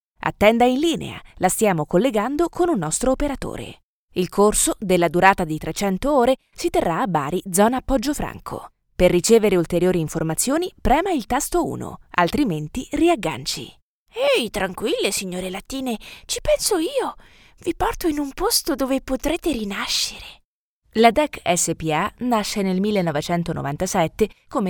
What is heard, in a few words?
italian female